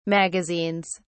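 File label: "magazines", British English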